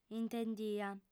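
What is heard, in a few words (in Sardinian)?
"intendia", female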